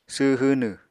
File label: "sww hwnw", Apatani